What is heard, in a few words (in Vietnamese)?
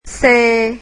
"c", Vietnamese alphabet